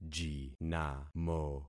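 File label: "jinamo", concatenated syllables; Affixing experiment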